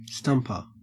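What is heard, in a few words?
"stumper", Australian English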